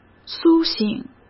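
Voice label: "苏醒", Mandarin Chinese; hsk; chinese-flashcards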